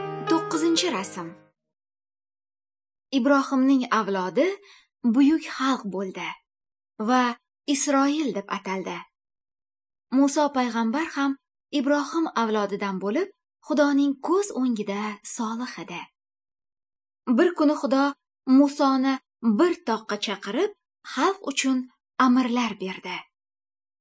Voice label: good news female uzbek northern